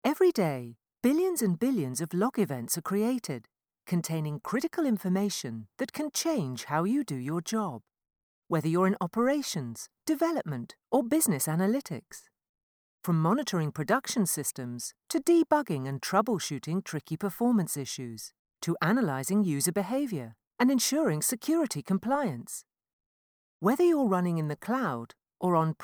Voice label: UPBEAT FRIENDLY animation